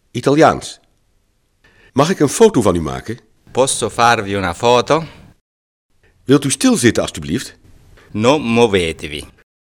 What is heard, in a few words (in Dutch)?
italiaans; Mag ik een foto van u maken?